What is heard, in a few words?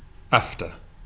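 "after", British English, male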